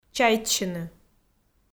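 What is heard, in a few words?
"чайтсьыны", Komi, female; kpv audio dict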